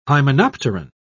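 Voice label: "hymenopteran", British English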